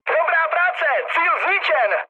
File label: bomb success, speech cze, mood med; War Thunder